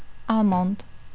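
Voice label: "almond", Polish female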